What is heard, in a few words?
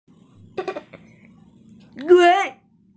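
"juan1", Mandarin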